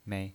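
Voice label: "mei", pinyin voice, Mandarin Chinese, neutral tone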